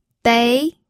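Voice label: "dei", pinyin, second tone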